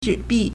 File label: "zhi3bi4", Chinese; 纸币 (紙幣) zhǐbì; bank notes; paper currency